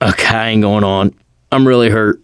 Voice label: going to die, survivor voice mechanic